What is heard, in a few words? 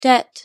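"debt", English pronunciation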